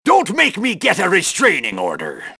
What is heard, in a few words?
sea captain tap